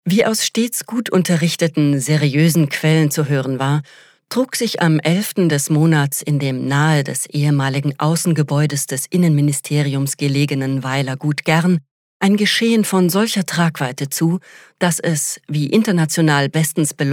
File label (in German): Trocken Neutral